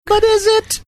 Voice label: saying but is it high pitched